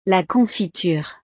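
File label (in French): "la confiture", French; desayuno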